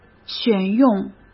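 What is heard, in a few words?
"选用", Mandarin Chinese; chinese flashcards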